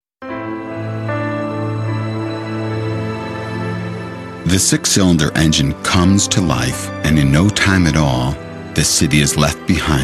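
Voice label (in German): English US Werbung Sanft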